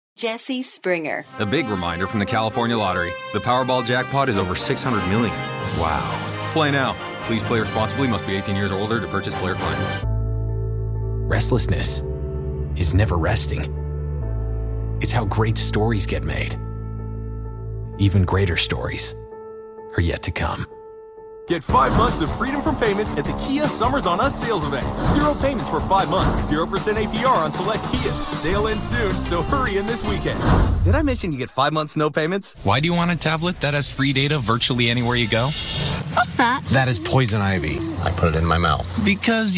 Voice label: commercial men